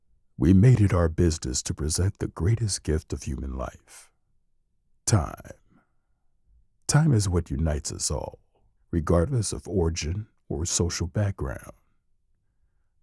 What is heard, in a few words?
movie trailer voice; TIME